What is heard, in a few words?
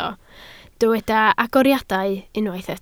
"agoriadau", Welsh